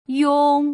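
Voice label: "yong", Putonghua; 零聲母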